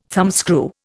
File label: "thumbscrew", Indian